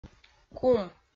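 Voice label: "кум", Komi, female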